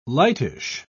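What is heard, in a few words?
"lightish", English, British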